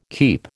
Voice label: "keep", American